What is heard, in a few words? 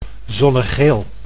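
"zonnegeel", Dutch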